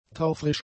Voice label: "taufrisch", German; adjectives

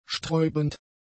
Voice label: "sträubend", German; adjectives

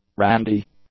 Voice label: "randy", English; adjectives

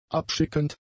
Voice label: "abschickend", German; adjectives

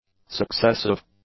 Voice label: "successive", English; adjectives

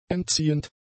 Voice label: "entziehend", German; adjectives

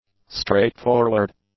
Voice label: "straightforward", English; adjectives

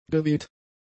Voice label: "geweht", German; adjectives

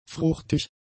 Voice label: "fruchtig", German; adjectives

